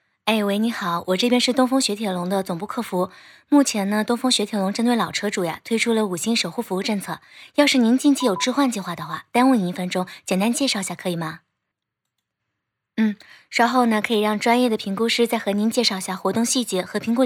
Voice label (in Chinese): 语音电话客服; 东风雪铁龙